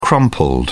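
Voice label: "crumpled", British English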